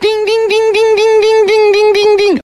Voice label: "ding ding ding ding ding ding ding ding ding ding", voice; ooh ahh